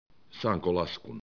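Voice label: "lasku", Finnish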